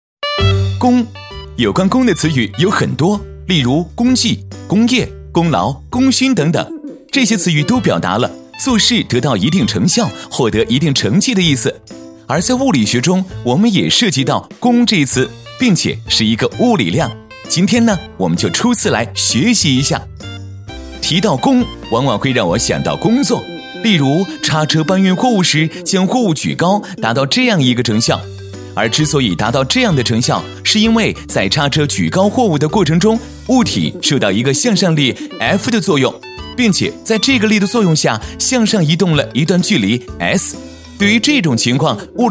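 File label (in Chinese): 男, 轻松诙谐, 物理教学, 普通话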